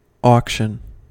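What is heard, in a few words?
"auction", American English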